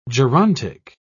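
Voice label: "gerontic", British English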